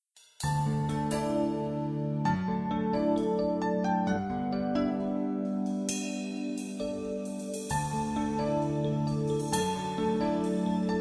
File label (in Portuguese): EVANGÉLICO MASC; Telemensagens 2017; Aniversários; Aniversários diversos